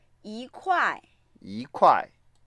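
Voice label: "yi1kuai4", Mandarin Chinese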